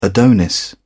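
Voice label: "adonis", British English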